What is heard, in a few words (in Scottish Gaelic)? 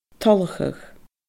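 "toileachadh", Scottish Gaelic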